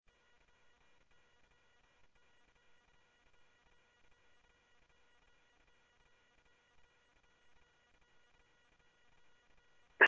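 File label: Mesa Police Department, scanner audio; Mesa Simulcast; MESA PD Superstn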